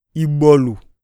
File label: neck, iyasa